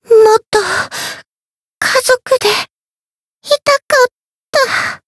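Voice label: Voice retire, Japanese; myungwha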